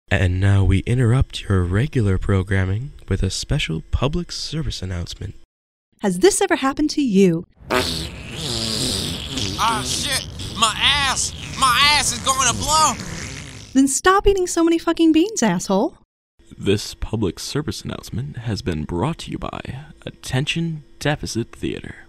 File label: Farting PSA; Attention Deficit Theater